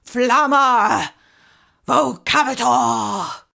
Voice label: flamma vocabitur commanding, incantations older female; fire spells; psfx